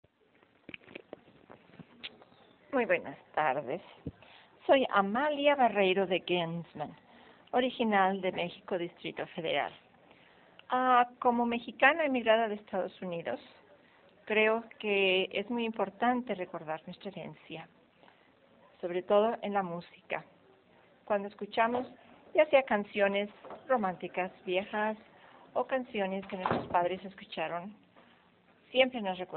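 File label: Mexico DF Female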